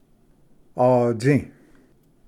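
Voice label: "atsi", Cherokee, Pronunciation; ᎠᏥ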